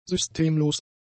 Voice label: "systemlos", German; adjectives

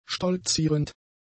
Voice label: "stolzierend", German; adjectives

